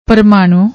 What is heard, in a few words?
"parmaanoo", Punjabi